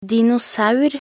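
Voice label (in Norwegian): "dinosaur", Norwegian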